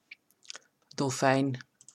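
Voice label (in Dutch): "Dolfijn", Dutch; marine-mammals; dolphin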